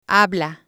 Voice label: "habla", spanish

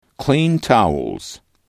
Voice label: "clean towels", English

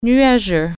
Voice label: cloudy, french

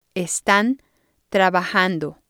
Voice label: "estan trabajando", spanish

